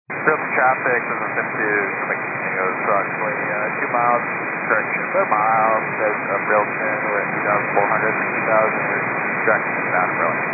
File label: 122.925 MHz; scanner radio